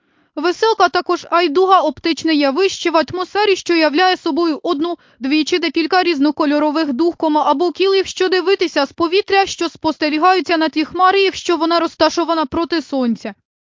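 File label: speaker, American English; piper-voices; uk UA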